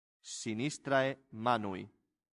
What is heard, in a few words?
"sinistrae manui", latin; santillana